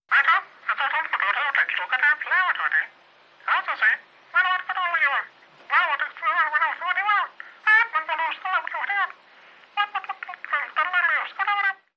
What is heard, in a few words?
Female Talk Gibber; سخنرانی